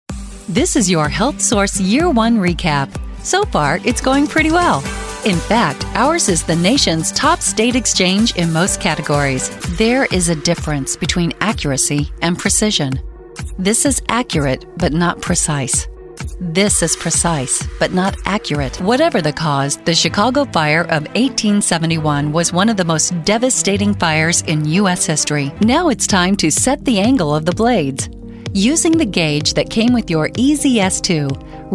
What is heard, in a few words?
corporate narration